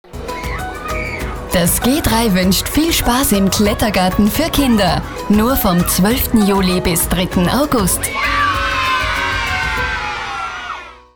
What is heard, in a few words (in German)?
Radiospot; Sprecher-Demos; Kinder